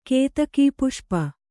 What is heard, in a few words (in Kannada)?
"ಕೇತಕೀಪುಷ್ಪ", Kannada; kētakī puṣpa; noun; = ಕೇದಗೆ - 2.